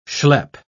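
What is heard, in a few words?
"shlep", British English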